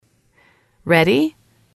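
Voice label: "ready", female, english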